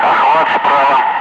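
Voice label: Russian speech, Ka-50 Wingman; Messages pincer right; DCS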